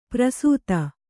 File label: "ಪ್ರಸೂತ", Kannada